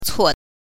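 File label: "cuo", Mandarin Chinese pinyin, neutral tone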